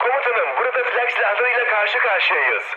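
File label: damaged aa, mood high, Turkish; War Thunder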